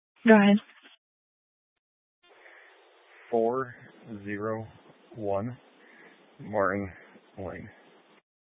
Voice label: Mesa County Sheriff Dispatch; scanner